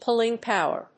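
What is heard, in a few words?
"pulling power", English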